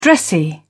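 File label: "dressy", British English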